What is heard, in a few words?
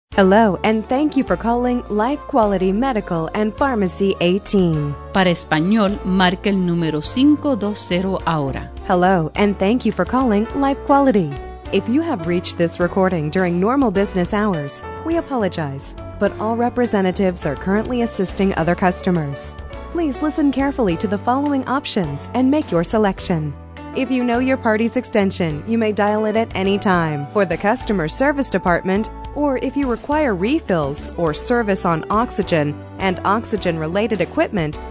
auto attendant